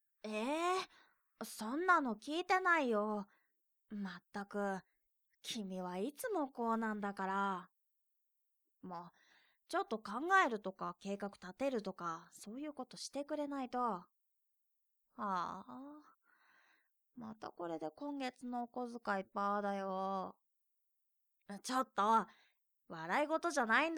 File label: boy